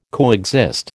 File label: "coexist", American